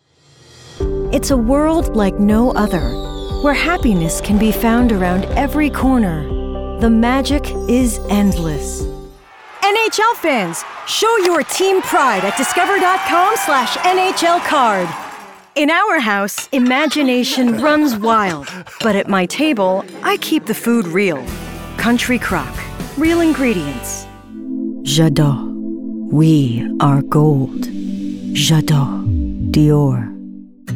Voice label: Commercial